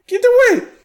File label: guard female; SyrinScape; Waterdeep